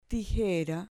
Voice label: "tijera" Spanish